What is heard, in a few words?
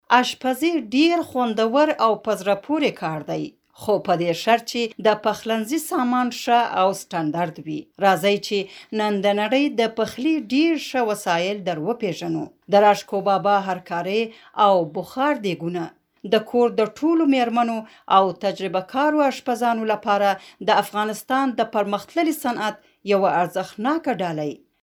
Pashto